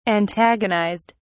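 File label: "antagonized", British English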